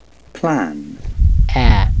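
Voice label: "plan", British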